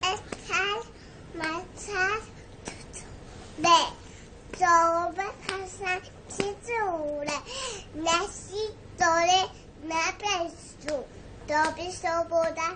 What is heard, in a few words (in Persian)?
اتل متل توتوله بدون آهنگ